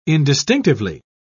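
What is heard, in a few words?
"indistinctively", British English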